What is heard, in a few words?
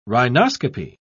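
"rhinoscopy", British English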